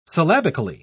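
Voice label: "syllabically", British English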